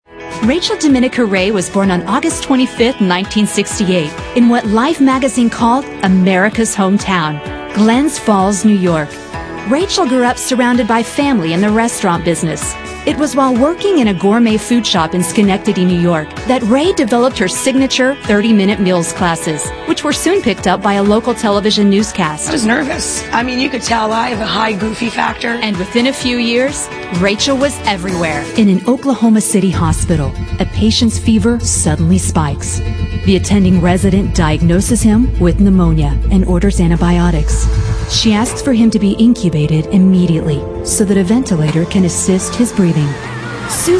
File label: female narration